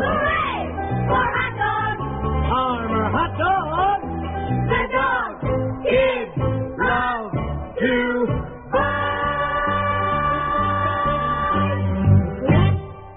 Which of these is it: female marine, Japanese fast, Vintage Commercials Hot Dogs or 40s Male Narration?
Vintage Commercials Hot Dogs